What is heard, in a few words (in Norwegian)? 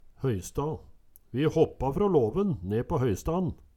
hoysta, Numedalsmål